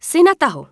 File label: Night Elf Female Priestess Greeting; NPC; wowpreklad; czwow